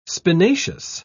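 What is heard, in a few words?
"spinaceous", British English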